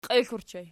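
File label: "qiexl" ergative plural, Archi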